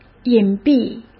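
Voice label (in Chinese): "隐蔽" Mandarin; hsk; chinese flashcards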